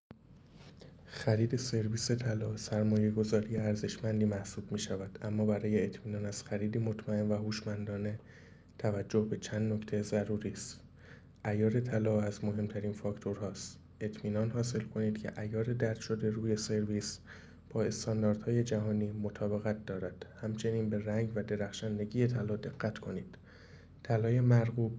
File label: voice msg